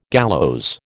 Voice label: "gallows", American

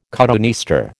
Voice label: "cotoneaster", American

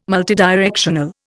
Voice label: "multidirectional", Indian

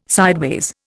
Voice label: "sideways", Indian

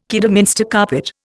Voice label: "Kidderminster carpet", Indian

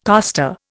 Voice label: "coster", Indian

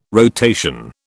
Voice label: "rotation", British

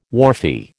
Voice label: "wharfie", American

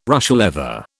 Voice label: "Russia leather", British

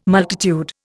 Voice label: "multitude", Indian

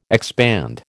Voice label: "expand", American

